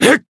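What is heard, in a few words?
Voice attack, Japanese; majuou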